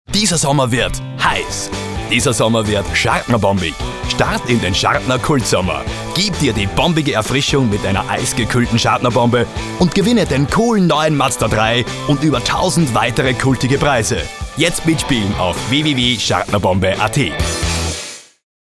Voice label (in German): Radiospot